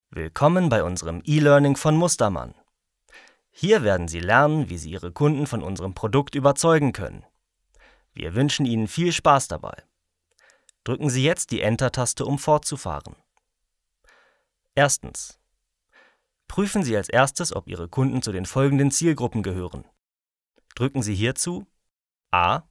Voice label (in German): elearning